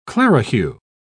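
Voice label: "clerihew", British English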